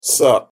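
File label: "sup", English pronunciation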